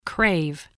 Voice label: "crave", English